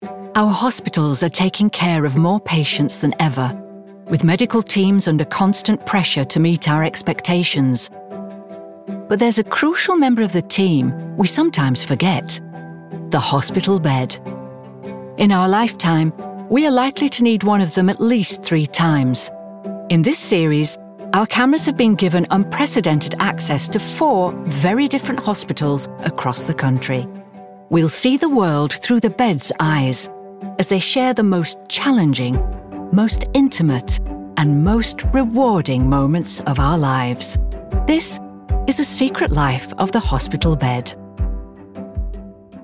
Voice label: Documentary